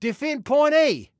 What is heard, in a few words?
DEFEND, Male